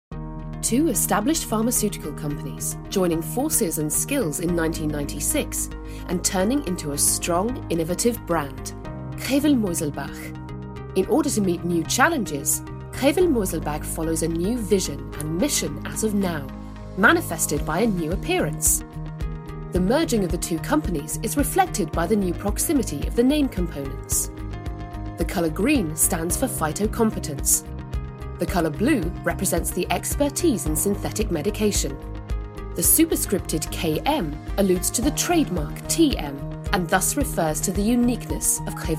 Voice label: German Medical Promo

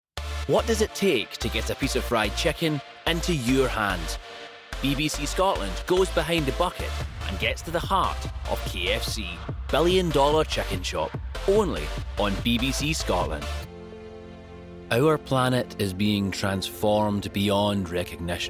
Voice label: Promo Reel

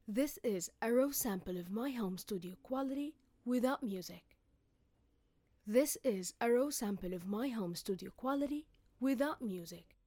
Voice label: raw sample of my home studio

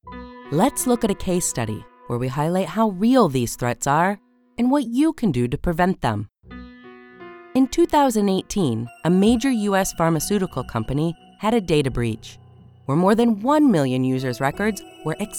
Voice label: Corp Elearn

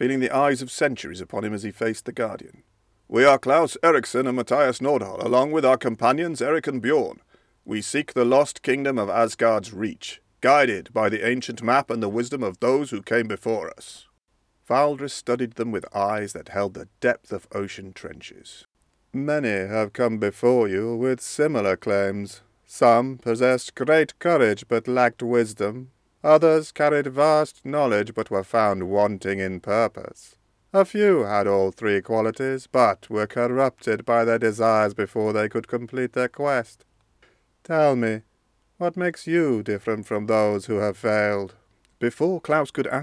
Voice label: Audiobook

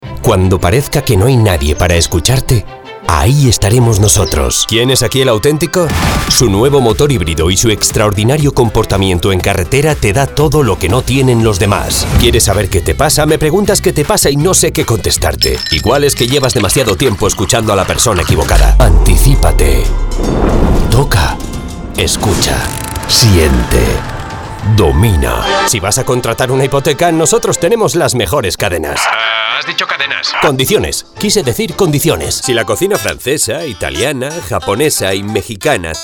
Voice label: VO MALE SPANISH

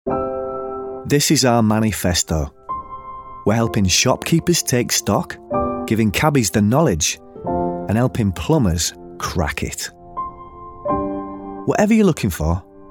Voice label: commercial reel

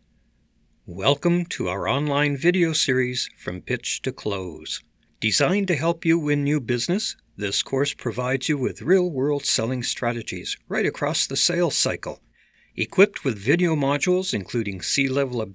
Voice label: e-learning sales module

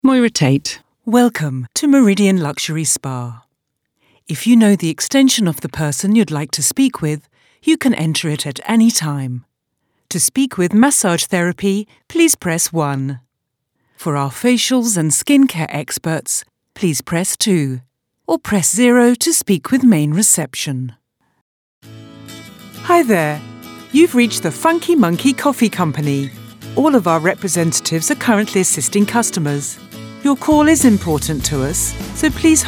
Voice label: telephone voice

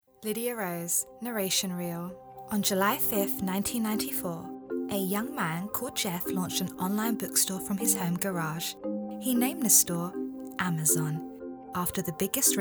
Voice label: docmentary narative

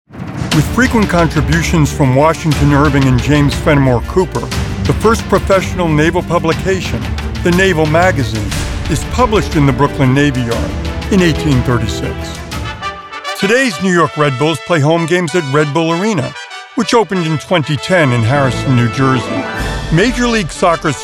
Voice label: Voiceovers Narration

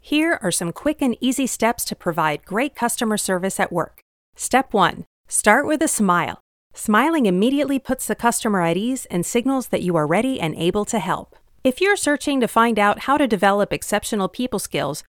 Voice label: Elearning

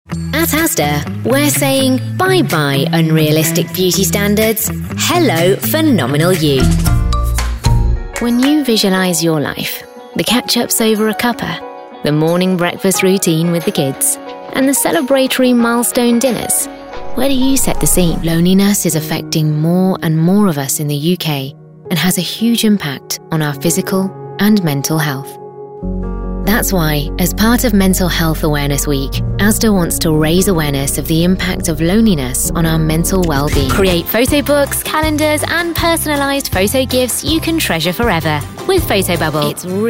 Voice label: Radio

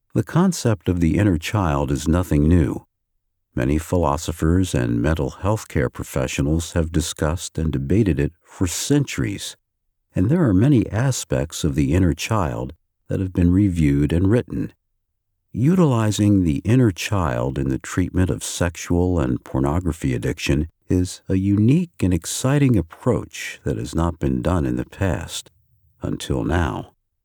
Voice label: Audiobook

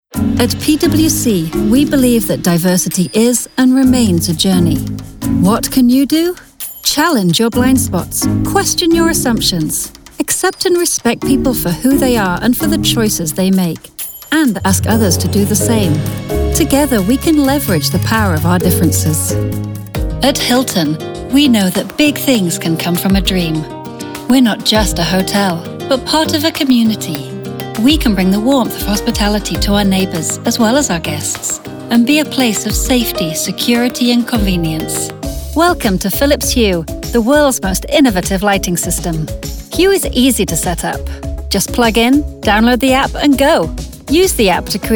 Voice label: Corporate Narration

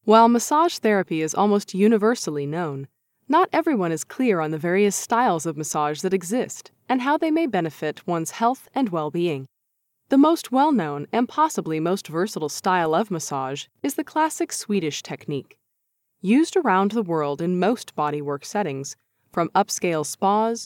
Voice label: eLearning

